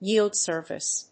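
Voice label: "yield surface", English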